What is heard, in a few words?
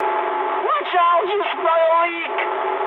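damaged water mood high, speech English; War Thunder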